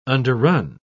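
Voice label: "underrun", English, British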